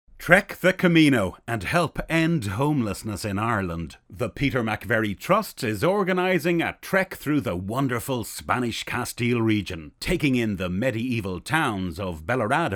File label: male irish voice over upbeat